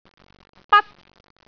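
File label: "bat1", Cantonese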